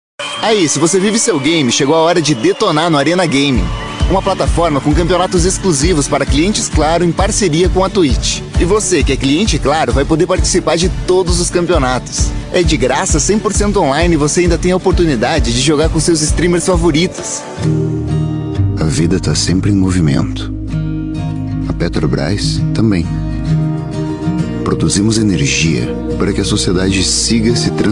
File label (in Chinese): 葡萄牙男声 多种样音风格